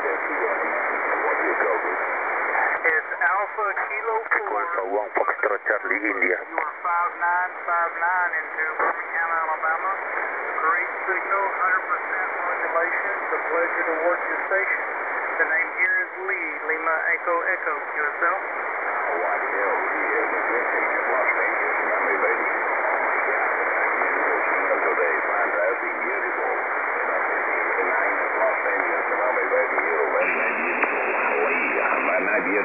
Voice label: qso, 14222khz, Radio